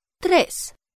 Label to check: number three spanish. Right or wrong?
Right